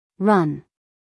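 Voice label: "run", American English female; everyone-can-use-english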